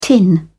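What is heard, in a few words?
"tin", British English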